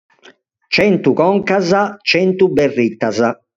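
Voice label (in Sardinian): "Centu Conca Berrita", Sardinian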